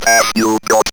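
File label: ROBVOX, robot voice; E-Lab; X Static Goldmine 1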